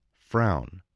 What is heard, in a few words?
"frown", English voice, spelling